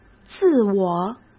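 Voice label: "自我", Mandarin Chinese; hsk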